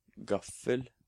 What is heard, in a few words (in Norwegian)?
"Gaffel", Norwegian